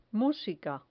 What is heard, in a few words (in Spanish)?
"musica", Spanish